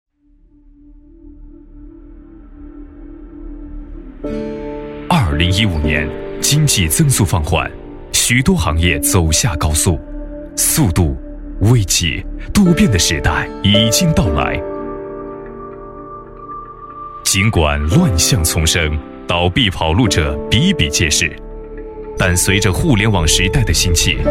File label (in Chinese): 低沉 紧张, 生物科技, 国语男声配音; 奉佳生物科技; 大气沉稳